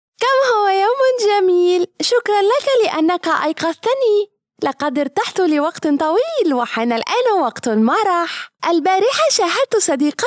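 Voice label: Kids character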